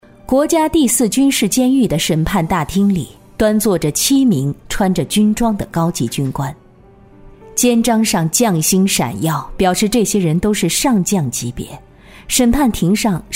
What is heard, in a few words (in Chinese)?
女 课件 有声书 片段; 《11处特工皇妃》